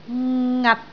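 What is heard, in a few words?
"ngat6", Cantonese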